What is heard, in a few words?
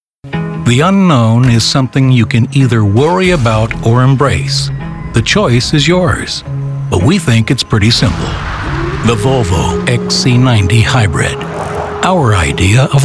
Storyteller